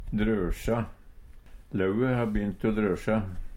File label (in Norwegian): "drøsja", Numedalsmål; Verb